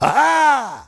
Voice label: Witch Doctor Warcry